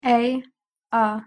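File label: "a", English pronunciation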